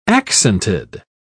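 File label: "accented", English, British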